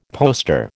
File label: "poster", American